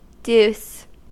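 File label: "deuce", US English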